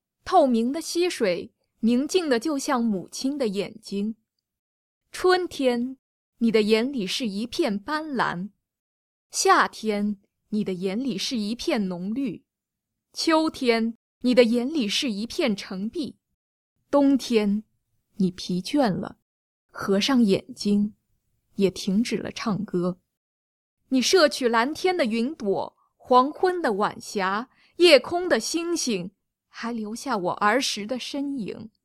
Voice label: poem

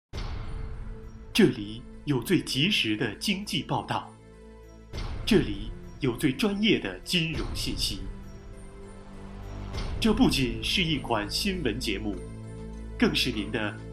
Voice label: news

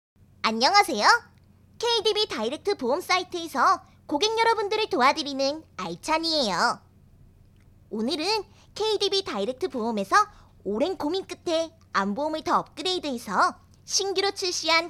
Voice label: boy

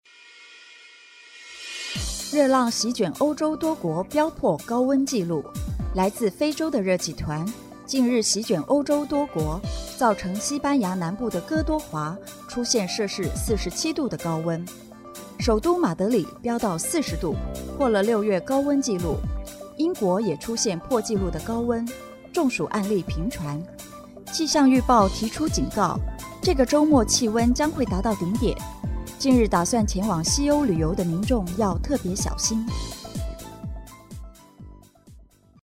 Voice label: news

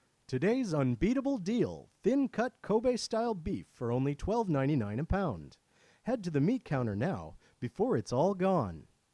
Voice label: announce

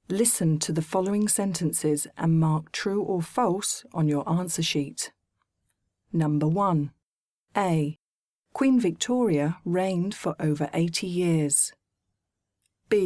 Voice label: edu

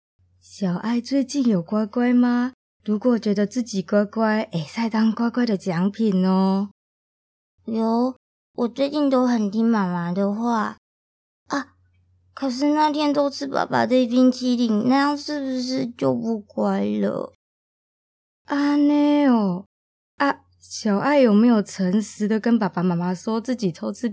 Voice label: story

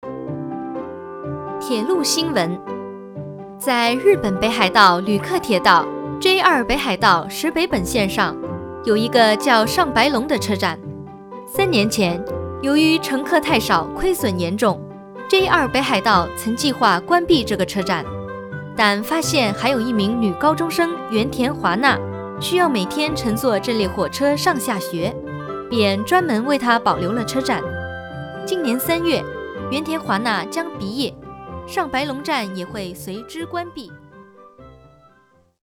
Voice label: news

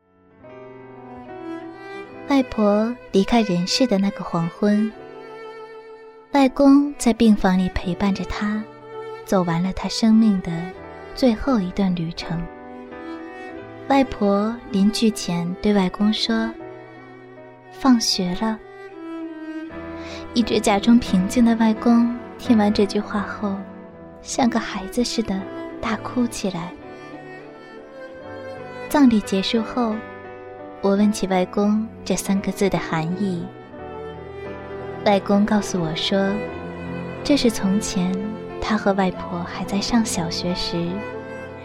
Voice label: story

